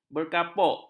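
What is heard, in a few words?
"berkapuk", Malay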